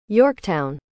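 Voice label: "Yorktown", American English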